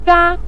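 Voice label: "zha" neutral tone, Mandarin Chinese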